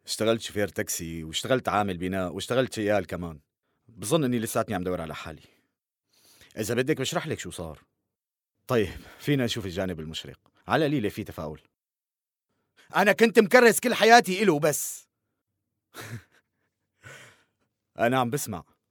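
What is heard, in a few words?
arabic syrian male character; voice demo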